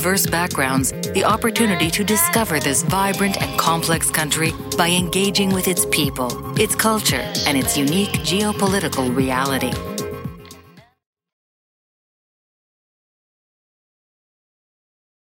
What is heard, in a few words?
Israel Smooth Professional